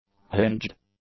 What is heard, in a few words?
"hinged", English; adjectives